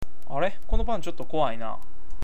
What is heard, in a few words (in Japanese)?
"kowai", awaji ben